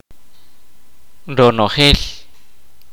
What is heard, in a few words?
"whole entire", Kaqchikel